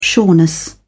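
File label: "sureness", British English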